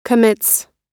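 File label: "commits", American English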